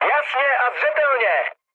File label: chat, speech Czech; War Thunder